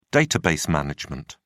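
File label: "database management", English, British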